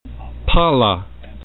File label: "pala", esperanto